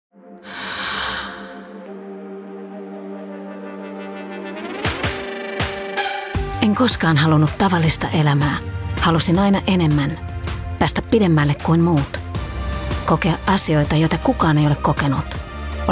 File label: FIN TV Commercial; Global Cruises